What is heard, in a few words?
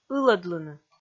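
"ылӧдлыны", Komi, female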